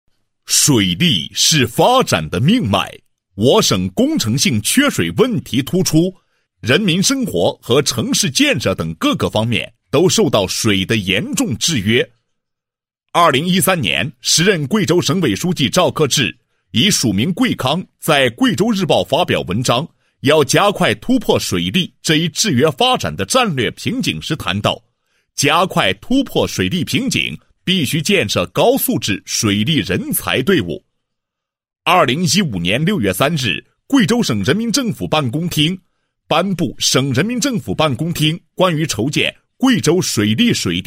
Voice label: 男 学校 宣传介绍解说词, 配音; 水利水电职业技术学院

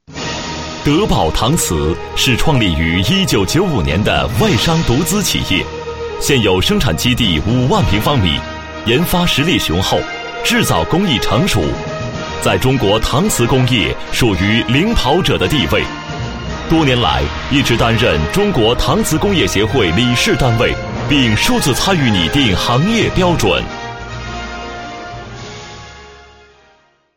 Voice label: 国语男声配音; 靓仔专题; 德宝搪瓷

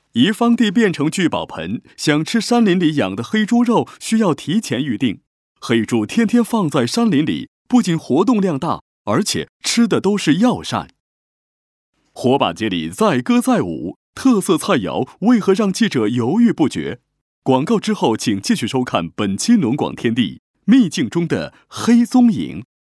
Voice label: 男 导视包装; 央视7套; 农广天地

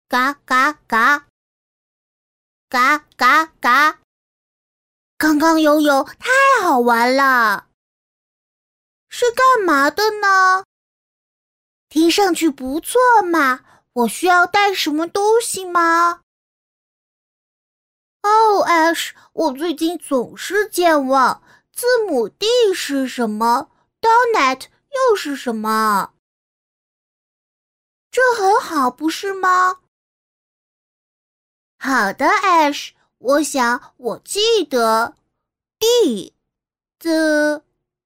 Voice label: 女 女童 傻乎乎女童

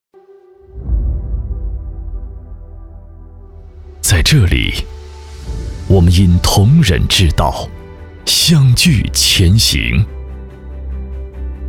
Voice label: 男 宣传片 大气深沉; 别克精英训练营

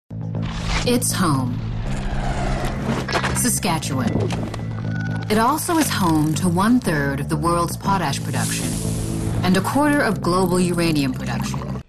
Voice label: 动感磁性, 在线教学广告

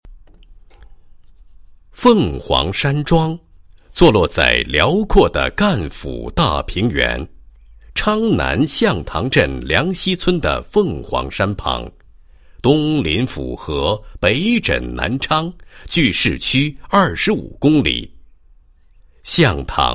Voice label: 男 广告 农业观光园区 绿色乡村观光旅游景区

